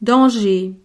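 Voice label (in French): "danger", French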